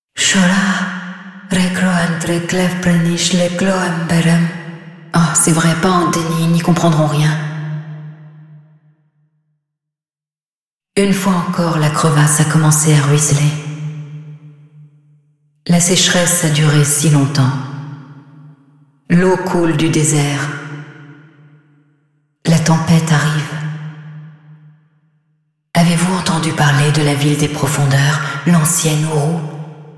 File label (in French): Intro, fre